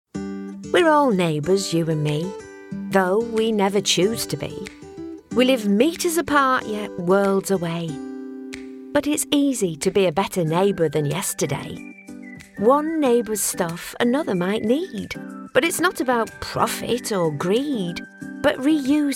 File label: Commercial